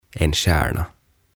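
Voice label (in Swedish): "en stjärna", Swedish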